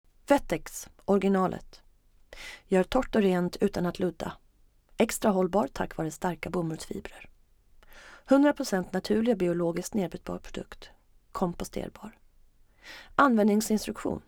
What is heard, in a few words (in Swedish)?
Rikssvenska